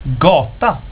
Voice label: "gata", swedish; plaatsen

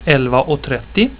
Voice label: "elva och trettio", swedish; tijden

